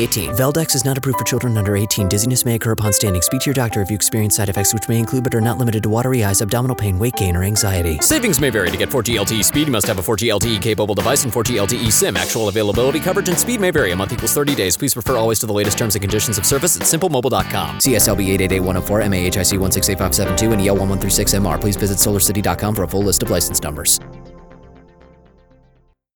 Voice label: commercial men legal tags